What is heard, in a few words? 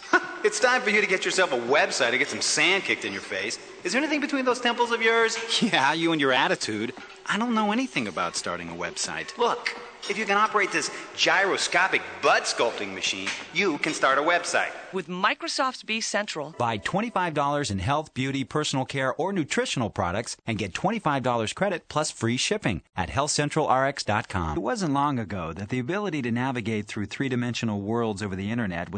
male voice